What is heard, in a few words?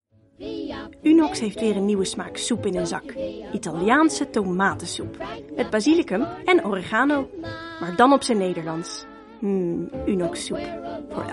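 Dutch F Commercial, female; voice over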